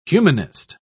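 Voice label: "humanist", English, uk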